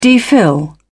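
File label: "dphil", British English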